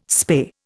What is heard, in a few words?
"spay", Indian